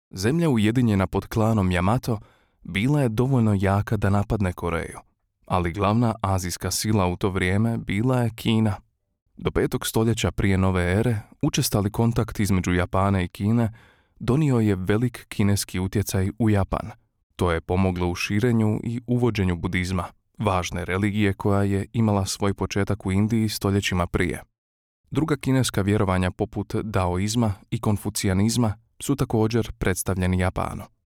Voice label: male croatian voice over talent narrative